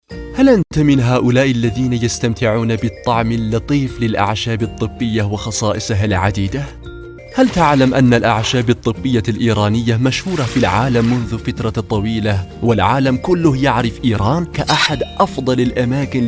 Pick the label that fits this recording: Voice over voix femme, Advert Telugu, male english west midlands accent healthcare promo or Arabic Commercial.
Arabic Commercial